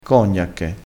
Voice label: "cognacche", crespinese

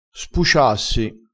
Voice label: "spuciassi", crespinese

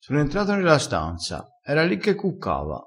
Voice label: "cucca", crespinese

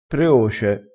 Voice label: "preoce", crespinese

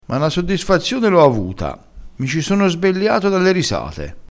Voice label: "sbelliassi", crespinese

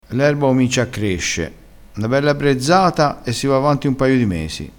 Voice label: "brezzata", crespinese

